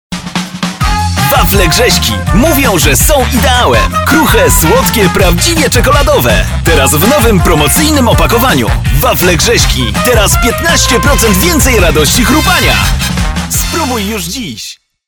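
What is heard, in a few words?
Polish, male, commercial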